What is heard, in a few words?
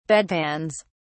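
"bedpans", English, British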